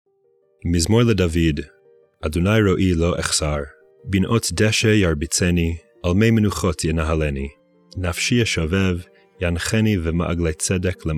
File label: poetry and prayer psalm hebrew; Meir Soloveichik